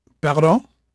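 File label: French male, voice; Pirates Of The Caribbean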